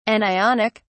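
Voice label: "anionic", British English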